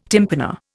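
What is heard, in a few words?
"tympana", Indian English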